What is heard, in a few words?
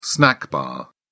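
"snack bar", British English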